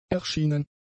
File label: "erschienen", German; adjectives